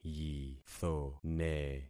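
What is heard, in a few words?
"yithone", concatenated syllables; Affixing experiment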